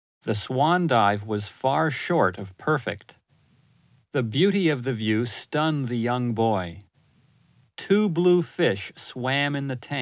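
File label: English, male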